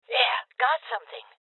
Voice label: Voices Loot Collect Female Old; rebel cops 2019